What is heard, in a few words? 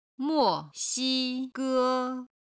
"mo4xi1ge1", Chinese